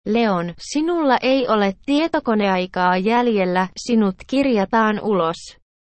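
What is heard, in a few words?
speech sample, Finnish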